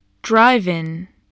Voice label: "drive-in", English